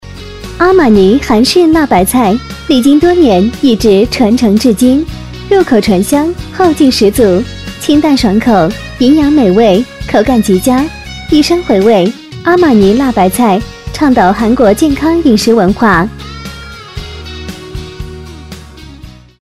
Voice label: 【广告】韩式辣白菜

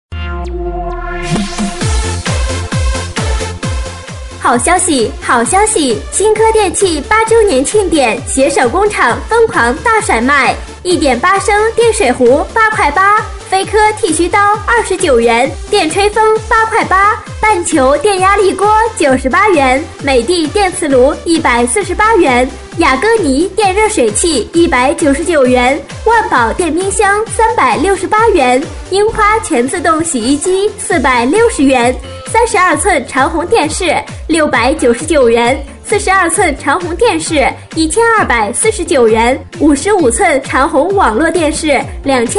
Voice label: 激情促销, 庆典; 8周年; 新科电器

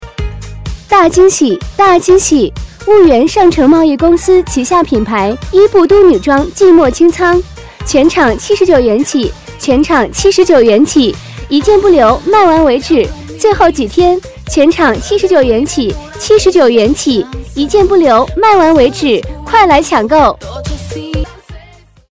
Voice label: 促销; 季末

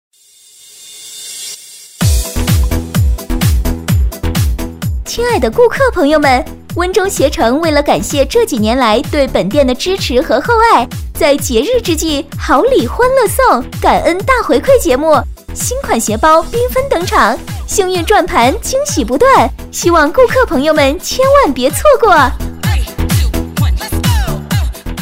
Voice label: 促销; 温州鞋城

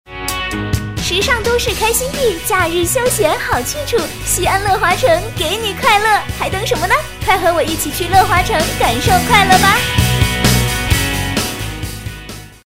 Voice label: 广告; 西安乐华城

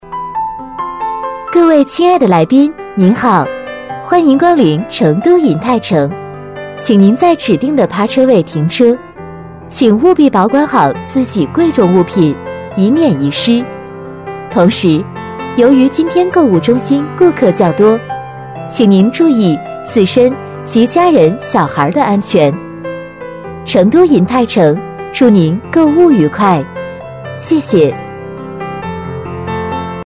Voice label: 商场广播 停车场; 成都银泰城

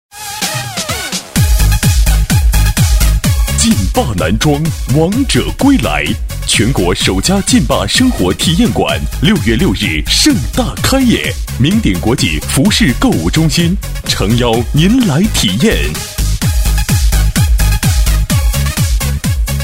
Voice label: 促销 男装; 劲霸男装

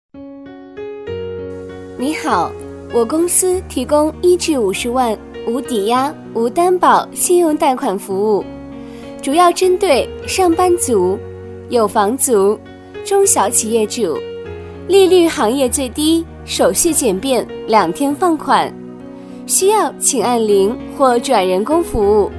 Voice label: 彩铃; 贷款

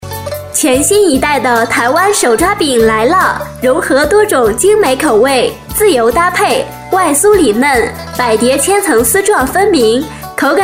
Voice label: 【促销】; 台湾手抓饼

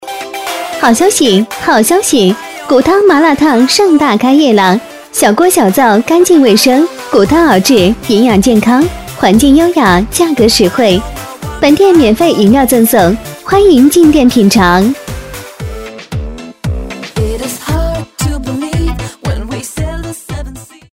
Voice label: 促销 麻辣烫

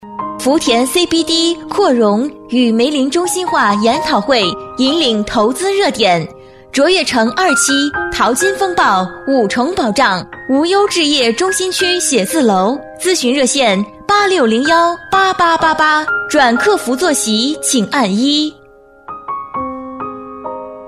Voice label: 【彩铃】; 福田CBD

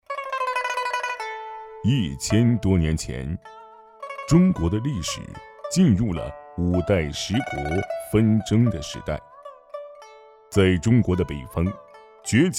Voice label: 纪录片

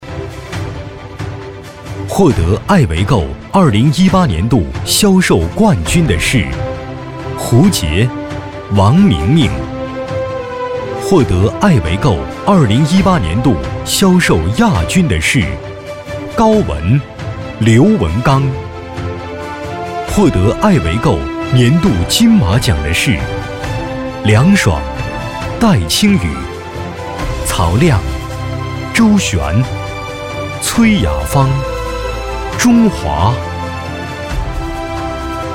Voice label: 颁奖 舒缓平稳; 金马奖

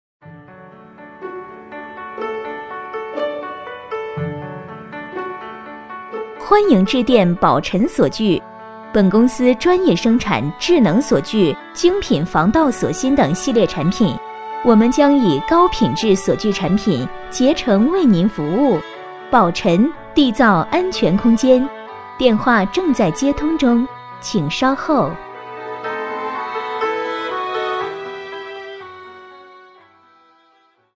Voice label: 彩铃 大气; 宝辰锁具

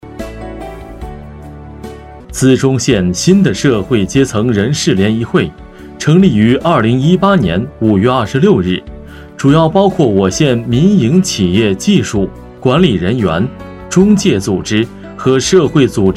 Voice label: 联谊会解说词

